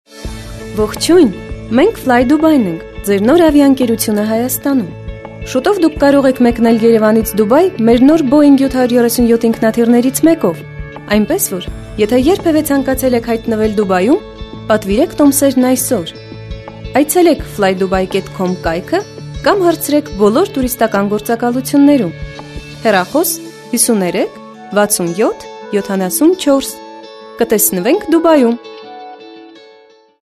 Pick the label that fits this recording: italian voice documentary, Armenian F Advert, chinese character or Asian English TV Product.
Armenian F Advert